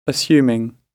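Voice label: "assuming", British English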